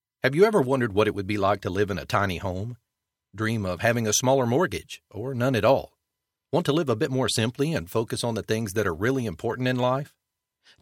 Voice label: audiobook example non fiction voice overs